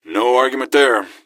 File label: answer, gasmask; scmod